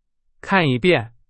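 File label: Chinese, example sentence; hsk2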